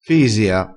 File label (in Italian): "fisia", crespinese